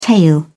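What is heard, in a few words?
"tail", British English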